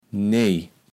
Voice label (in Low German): "nej", kaevels platt